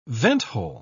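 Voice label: "venthole", British English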